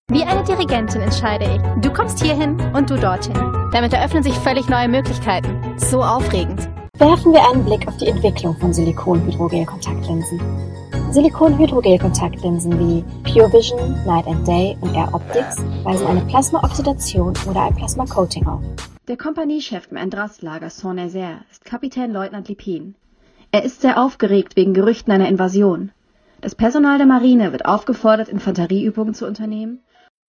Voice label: German